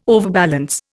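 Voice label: "overbalance", Indian English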